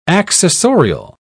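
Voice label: "accessorial", British English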